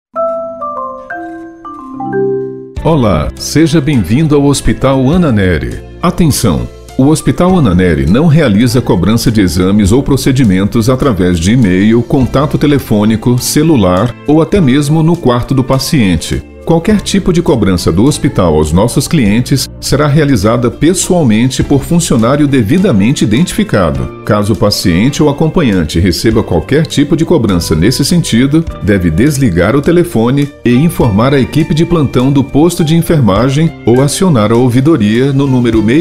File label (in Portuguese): espera telefonica; HOSPITAL ANNA NERY